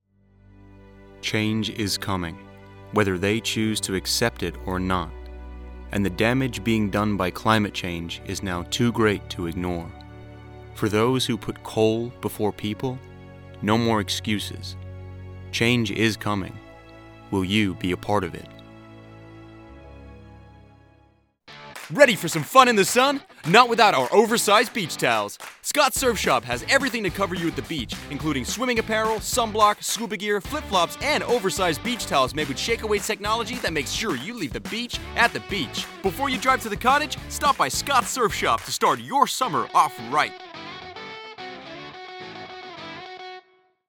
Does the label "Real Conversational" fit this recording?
No